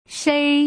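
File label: "shei", Mandarin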